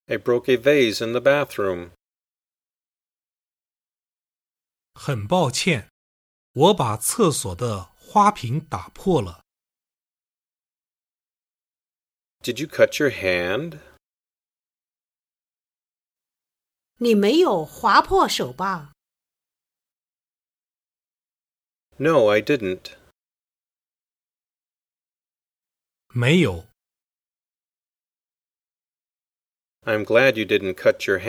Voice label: Dialog